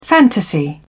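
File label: "fantasy", British English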